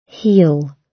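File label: "heal", British English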